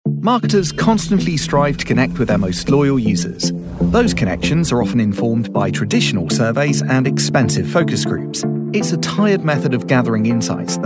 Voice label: english british corporate